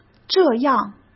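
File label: "这样", Mandarin Chinese; hsk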